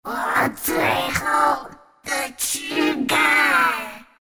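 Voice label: death, Chinese; dota2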